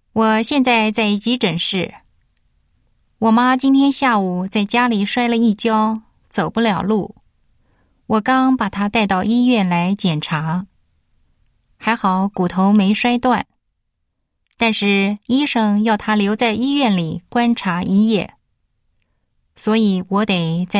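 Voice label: Chinese voice message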